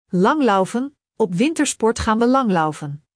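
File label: "langlaufen", Dutch; Spelling Gr6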